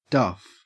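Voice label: "duff", Australian English; pronunciation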